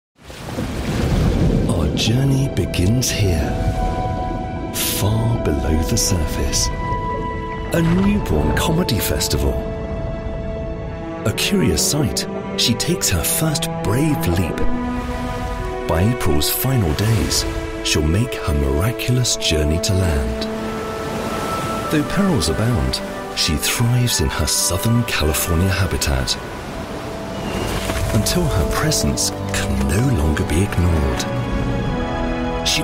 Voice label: english british documentaries

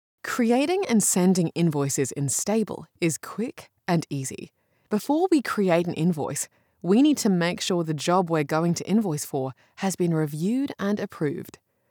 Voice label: english australian explainer videos

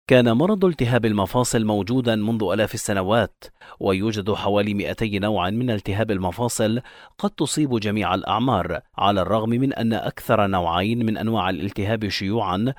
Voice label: arabic audiobooks

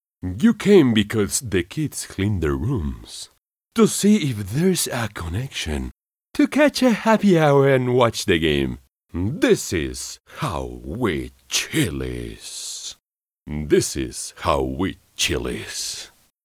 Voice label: spanish latin american promos

